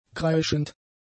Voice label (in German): "kreischend", German; adjectives